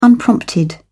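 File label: "unprompted", British English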